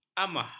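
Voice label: "amah", Malay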